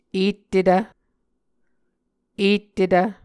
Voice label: "ettetv", muscogee

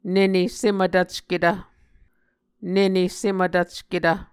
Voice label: "nene semvtvcketv", Muscogee

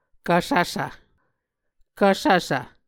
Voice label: "kvsvsv", Muscogee

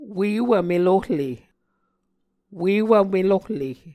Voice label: "uewv melohle", Muscogee

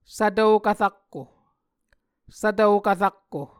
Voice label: "svtokv-rakko", Muscogee

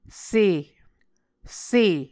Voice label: "se", Muscogee

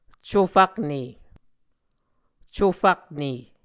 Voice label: "cofakne", muscogee

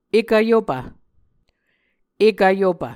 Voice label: "ekv yopv", muscogee

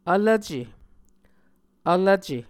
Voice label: "vlvce", Muscogee

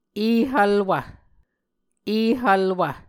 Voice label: "ehvlwv", muscogee

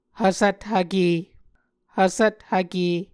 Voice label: "hvsvthvke", Muscogee

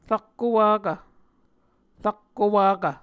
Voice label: "rakko wakv", Muscogee; Mvskoke Words with Repeated Consonants; A Dictionary of Creek/Muskogee; SIL International

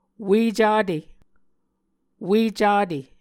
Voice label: "ue cate", Muscogee

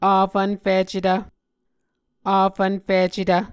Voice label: "afvnficetv", Muscogee

